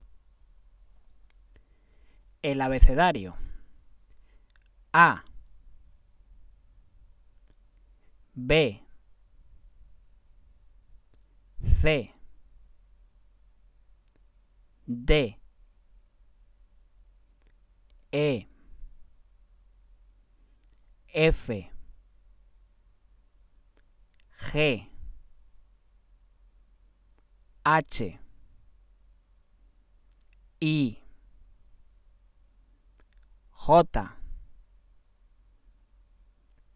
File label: The Spanish Alphabet Spelling and Pronunciation